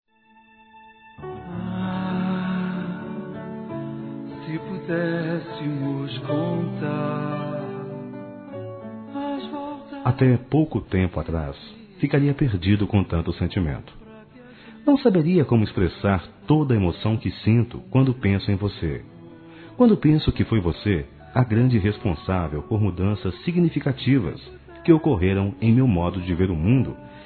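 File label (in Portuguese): ROMANTICA, Telemensagens, Românticas masculino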